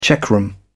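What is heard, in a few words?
"checkroom", British English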